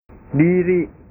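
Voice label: "diri", Indonesian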